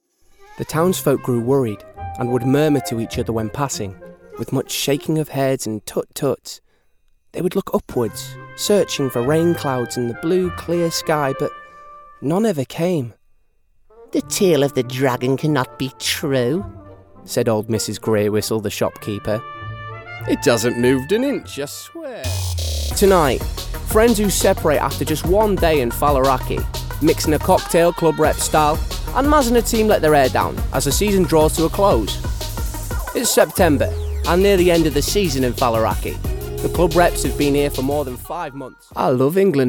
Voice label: Narrative Reel; Expressive and Versatile; Age: 20-30